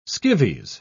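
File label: "skivvies", British English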